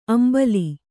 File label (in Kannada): "ಅಂಬಾಲ್", Kannada